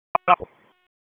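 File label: Raleigh Police Northeast District Dispatch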